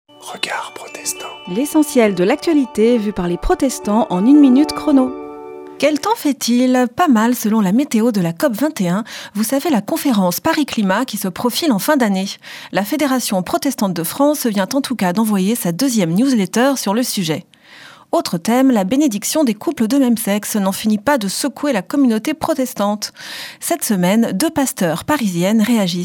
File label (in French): Spot radio; Regards protestants